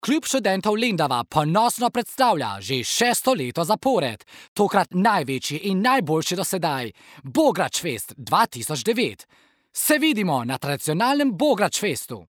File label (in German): Slowenisch